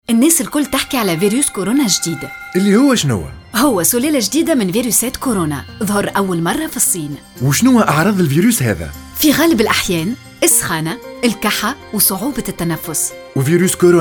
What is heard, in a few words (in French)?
Spot Radio, Musique; Ministere de la Sante; Virus Corona; Arrivee en Tunisie